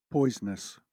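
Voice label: "poisonous", English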